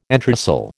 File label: "entresol", American